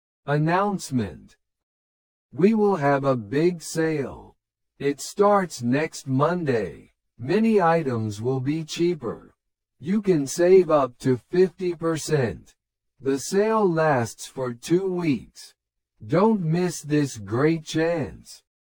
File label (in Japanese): トーク スロー